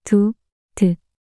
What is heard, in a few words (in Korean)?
"두드" 낱소리 여자